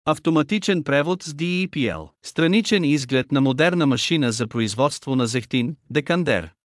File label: bulgarian; oliveoilmuseum